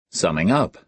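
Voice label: "summing-up", American English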